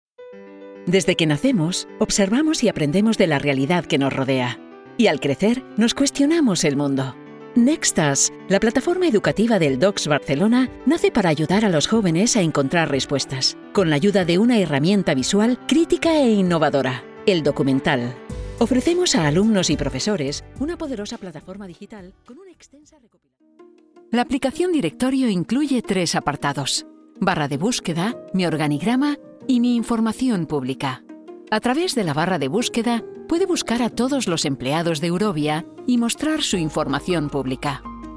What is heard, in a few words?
INFORMATIVE